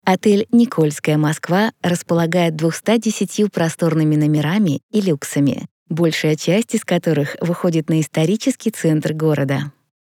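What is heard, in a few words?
Russian IVR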